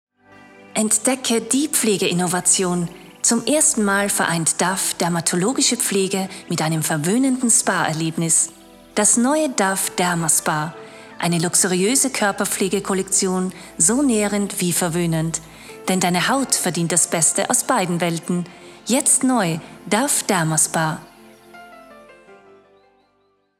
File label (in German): Sprechprobe, Werbespot; Werbespot DOVE